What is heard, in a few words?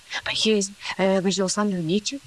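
voice acting, es, female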